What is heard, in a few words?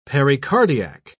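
"pericardiac", British English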